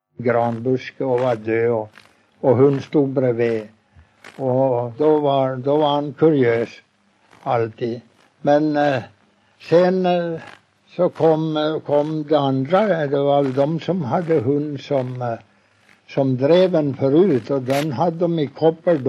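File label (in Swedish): Huddunge Uppland, dialekter; Folkminnen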